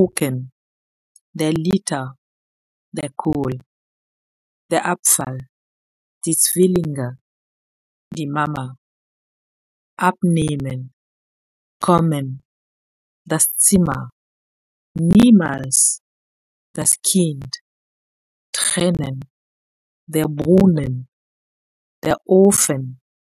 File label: prononciation das Akkordeon